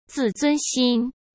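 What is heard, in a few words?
"自尊心", Mandarin Chinese; hsk; chinese-flashcards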